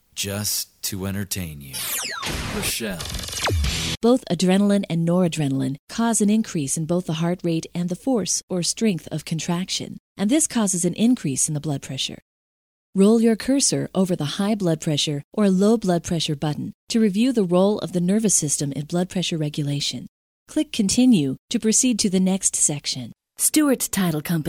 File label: Narration Voice